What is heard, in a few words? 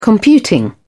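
"computing", English, British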